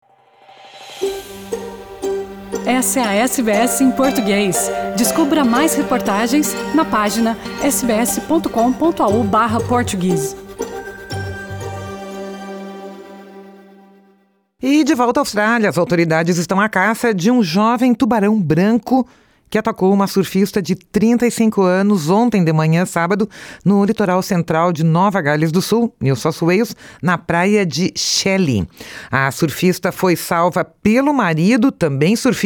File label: portuguese; SBS Portuguese; Marido surfista enfrenta tubarão e salva a sua mulher do ataque